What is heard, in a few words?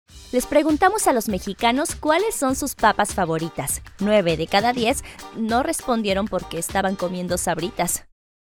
female, Mexican, Mexican Spanish